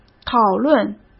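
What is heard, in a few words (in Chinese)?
"讨论", Mandarin Chinese; chinese flashcards; hsk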